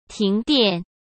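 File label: "停电", Mandarin Chinese; chinese flashcards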